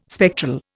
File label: "spectral", Indian